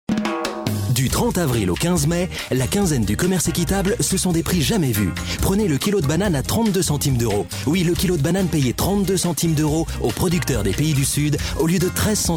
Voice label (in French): Pub radio; Max Havelaar